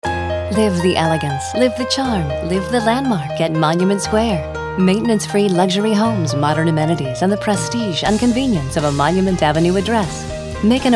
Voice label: sophisticated